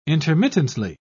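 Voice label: "intermittently", British English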